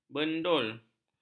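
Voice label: "bendul", Malay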